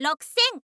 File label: info girl